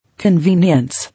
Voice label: "Convenience", English

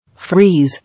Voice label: "Freeze", English

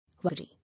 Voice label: "Worry", English

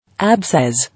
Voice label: "Abscess", English

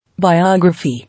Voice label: "Biography", English

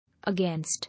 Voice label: "Against", English

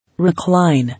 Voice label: "Recline", English

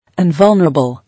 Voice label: "Invulnerable", English

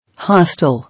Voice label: "Hostile", English

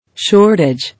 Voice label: "Shortage", English

